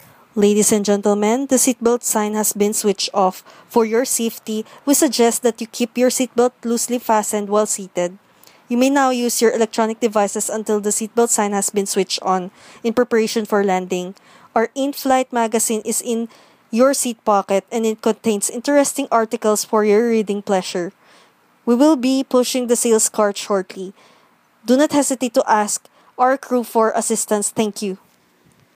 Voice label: airplane announcements

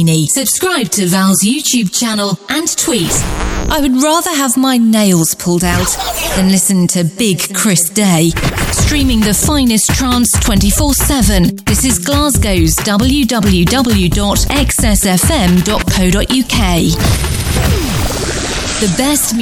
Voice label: english female voice over

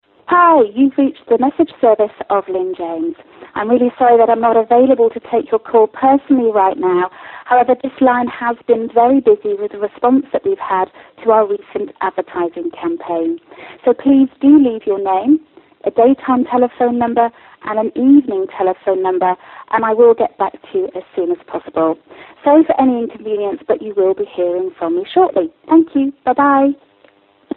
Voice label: voicemail greeting

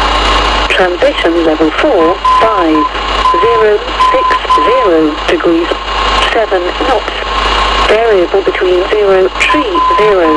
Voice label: rotterdam airport atis meteo atc; ehrd

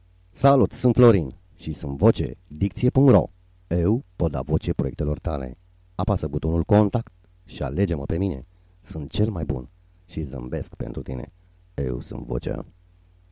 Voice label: voice over

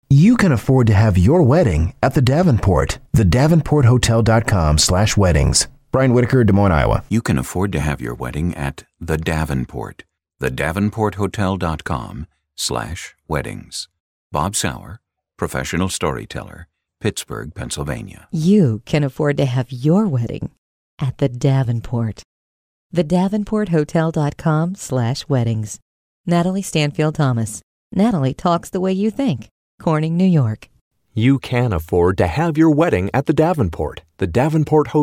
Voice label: hotel voiceover samples; venport hotel

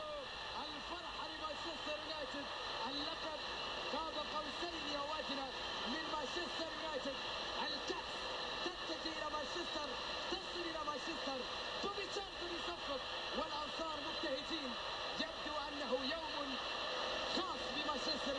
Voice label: arabic commentator summing up; man utd v chelsea

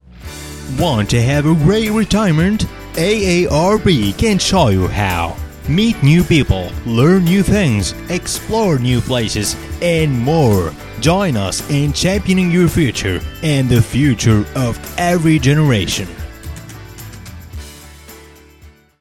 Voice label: radio commercial

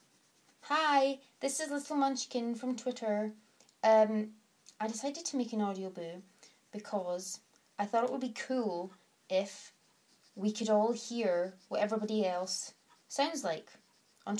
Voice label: accent

